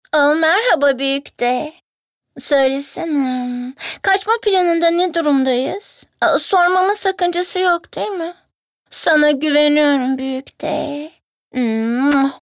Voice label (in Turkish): ANIMASYON